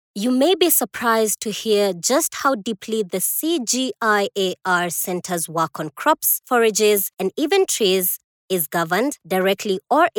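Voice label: African English, female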